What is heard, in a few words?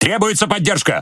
Ru Speech Need Support; Quick Commands